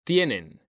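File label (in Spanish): "TIENEN" Spanish, male; mekanta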